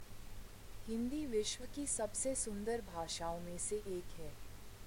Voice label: hindi